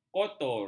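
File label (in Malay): "kotor", Malay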